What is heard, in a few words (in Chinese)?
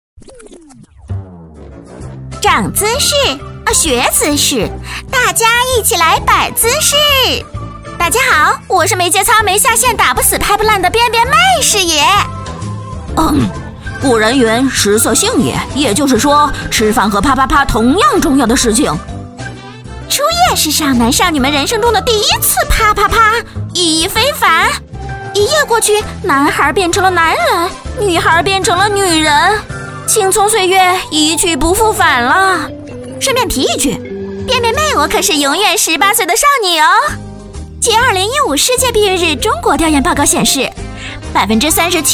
女; 飞碟说; 涨姿势便便妹; 国语女声配音